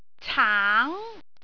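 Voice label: "caang2", Cantonese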